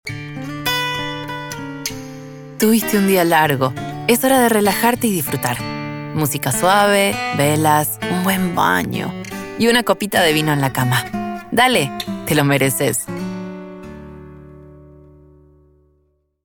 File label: Warm Relaxing; Calido Relajante